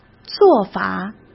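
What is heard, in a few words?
"做法", Mandarin Chinese; chinese flashcards; hsk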